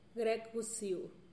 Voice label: "grekgusiu" thunder and lightning pattern, teotitlan